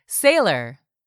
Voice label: "SAILOR", english; 3000 words